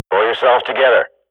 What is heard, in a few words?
radio bot regroup, Counter-Strike swat; Global Offensive; player vo